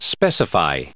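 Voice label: "specified", US English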